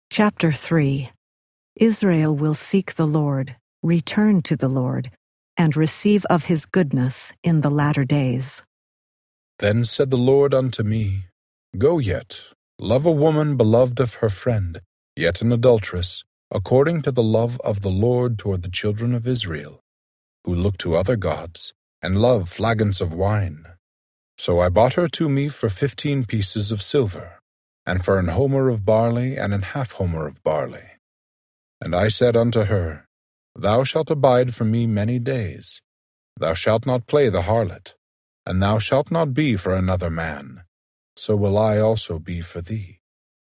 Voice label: hosea male voice; hosea 03; the old testament